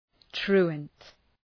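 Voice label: "truant", English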